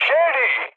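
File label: Turkish enemy detected, mood med, speech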